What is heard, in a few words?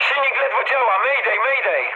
damaged engine mood high, speech pol; War Thunder